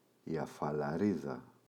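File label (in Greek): "αφαλαρίδα η", Greek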